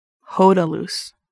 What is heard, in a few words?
"orange", Lushootseed